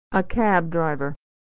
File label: "driver", pronunciation